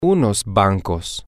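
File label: some banks, Spanish, male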